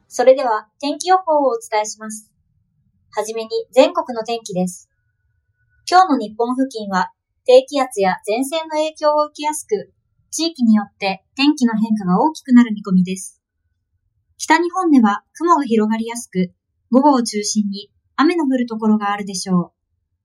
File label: ai engine voice long